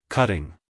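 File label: "cutting", American English male; everyone-can-use-english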